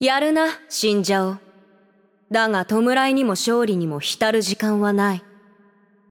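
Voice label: voice over, Japanese; Game Outro; bloom minigame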